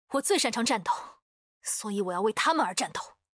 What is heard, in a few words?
vo, zh-cn; akali